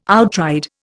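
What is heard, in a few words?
"outride", Indian English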